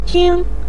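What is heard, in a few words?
"ting", Mandarin Chinese syllable, neutral tone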